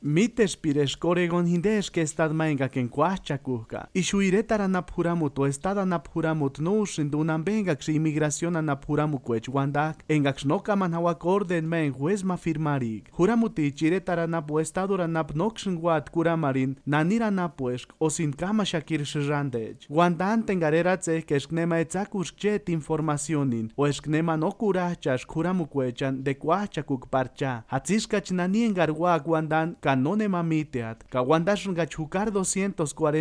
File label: Purepecha; Oregon’s Sanctuary Laws; Sanctuary Promise